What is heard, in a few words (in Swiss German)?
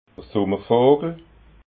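"summervogel", Alsatian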